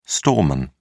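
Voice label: "storeman", English, British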